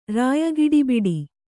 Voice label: "ರಾಯಗಿಡಿಬಿಡಿ", Kannada; rāya giḍibiḍi; noun